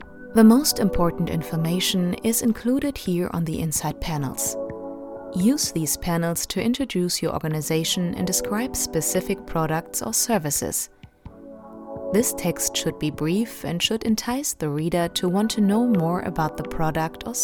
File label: Engish description